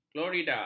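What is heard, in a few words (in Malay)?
"klorida", Malay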